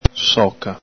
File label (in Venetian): "soca", Veneto